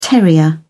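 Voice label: "terrier", English, British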